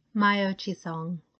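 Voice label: Maio Chisong, pronunciation guide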